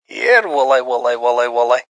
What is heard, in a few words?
Here Wooly, Male; Talking